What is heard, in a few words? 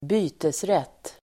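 "bytesrätt", Swedish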